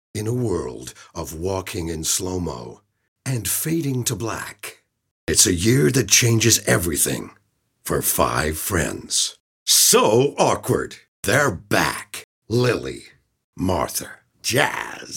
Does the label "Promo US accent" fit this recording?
Yes